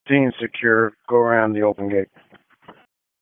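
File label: Public Safety Tactical, p25; wake p25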